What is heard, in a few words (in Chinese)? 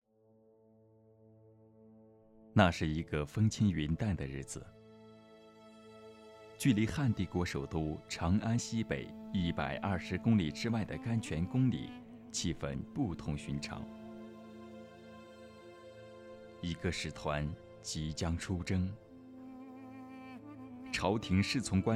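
记录片, 男配音 中年（36-54） 普通话 年轻磁性; 记录片河西走廊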